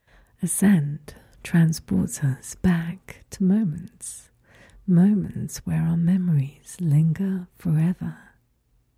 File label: Whisper Voice